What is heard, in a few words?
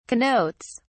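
"connotes", British English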